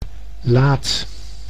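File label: "laat", Dutch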